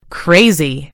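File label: "crazy", British English; gcompris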